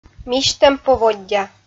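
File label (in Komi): "мисьтӧм поводдя", Komi, female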